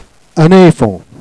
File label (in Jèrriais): "èfant", Jèrriais